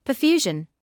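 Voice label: "perfusion", British English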